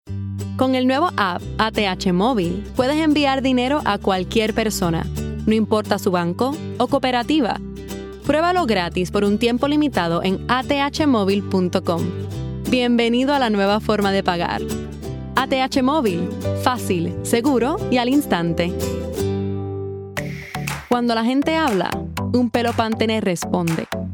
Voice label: Spanish-Latin (Puerto Rico), Female; 20s-30s